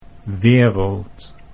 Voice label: "wereld", Dutch

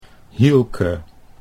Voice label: "Hielke", Dutch

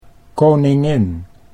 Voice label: "koningin", Dutch

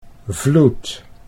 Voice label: "vloed", Dutch

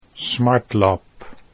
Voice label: "smartlap", Dutch

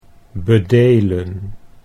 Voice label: "bedelen", Dutch

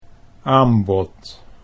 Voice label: "aanbod", Dutch

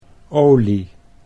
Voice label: "olie", Dutch

